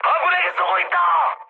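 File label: Korean player friendly fire mood high; speech; War Thunder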